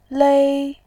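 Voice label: "lei1", Mandarin Chinese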